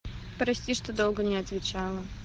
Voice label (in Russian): jenskie golosovye sms